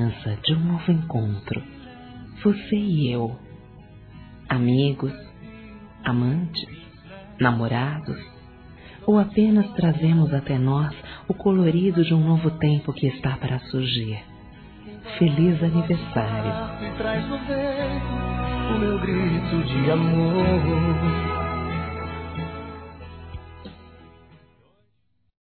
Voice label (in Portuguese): amizade colorida, Telemensagens, Fem